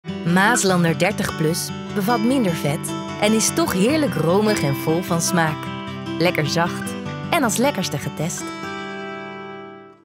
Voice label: TVC; Maaslander

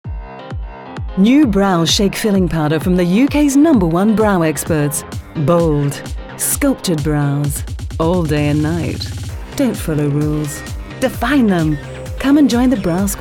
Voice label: Commercial, voice-overs

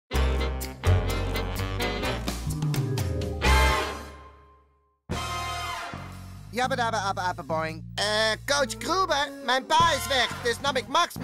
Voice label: cartoon

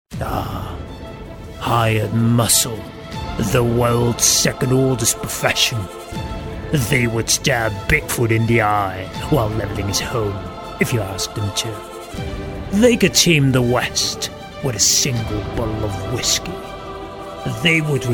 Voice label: EN Commercial

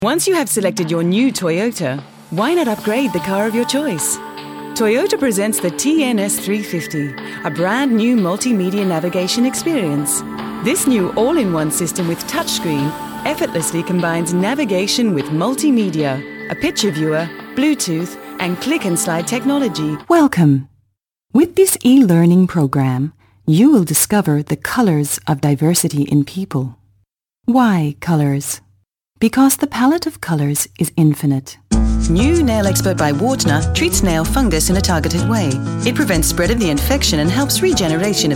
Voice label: Mid-Atlantic VO

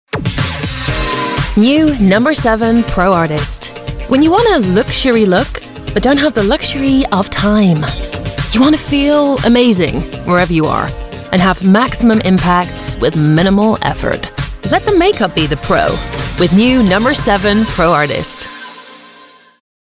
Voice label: Mid Atlantic; No7 Pro Artist

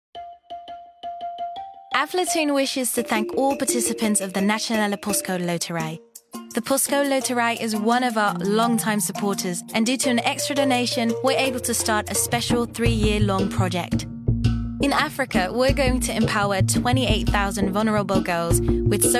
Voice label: UK promo; Aflatoun Nederland

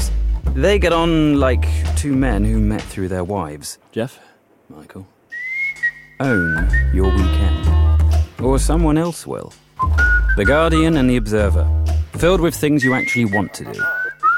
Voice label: Rock Climbing Advert

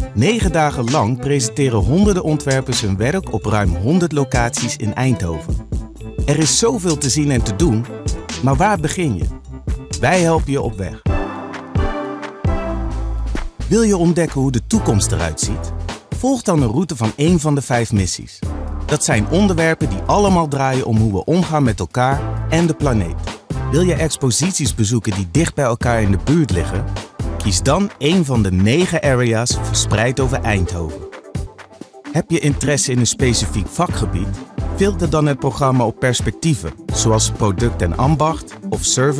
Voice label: NL Dutch; Desgin Week

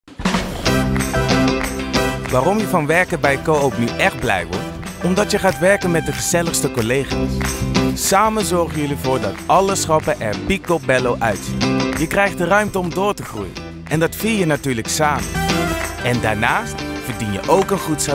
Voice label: NL; Coop

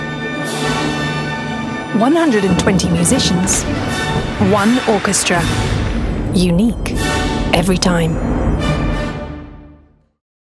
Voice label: UK payoff, voice-overs; Concertgebouw Orkest

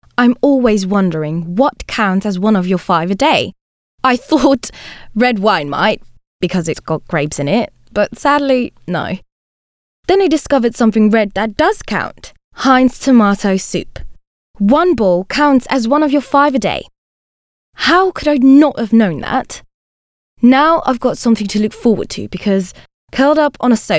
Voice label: UK, voice-overs; Heinz

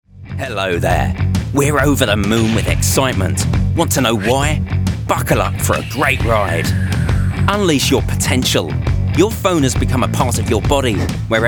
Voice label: Voiceover

